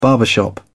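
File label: "barbershop", British English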